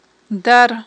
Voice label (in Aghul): "дар", aghul